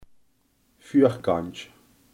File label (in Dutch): "voorkant", limburgse